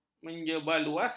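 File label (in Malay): "menyebarluaskan", Malay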